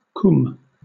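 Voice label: "cwm", British English; pronunciations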